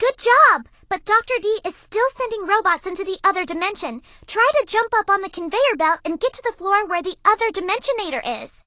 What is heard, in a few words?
English Voice